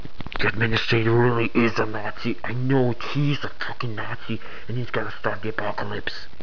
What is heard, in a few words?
scientist mumble; svencoop; cracklife